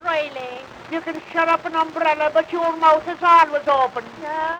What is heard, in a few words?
Backyard conversation; edison